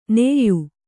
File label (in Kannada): "ನೇಯು", Kannada